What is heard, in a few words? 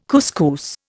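"couscous", Indian English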